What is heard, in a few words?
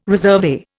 "resurvey", Indian